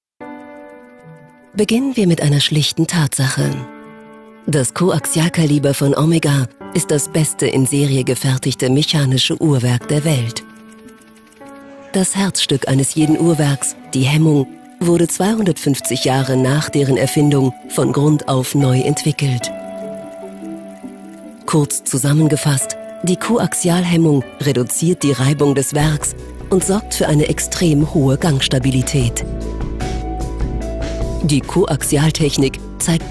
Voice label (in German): Sanft; Fremdtake